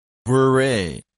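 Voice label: "bourree", English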